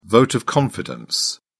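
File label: "vote of confidence", British English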